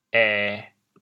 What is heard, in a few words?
"ê1", chaoyang